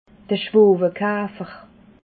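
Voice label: "schwowekafer", Alsatian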